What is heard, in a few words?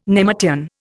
"nemertean", Indian